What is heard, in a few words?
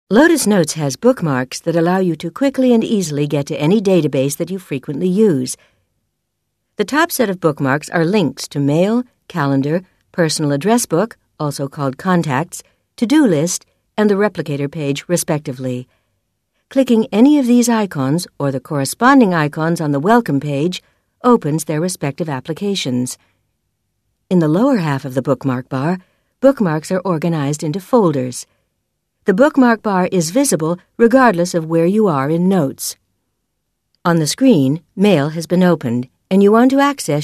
voice demo